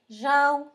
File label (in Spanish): "sapo", Zapoteco; Animales; San Pedro Mártir; INALI